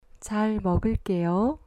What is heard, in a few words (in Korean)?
"chalmogulleyo", Korean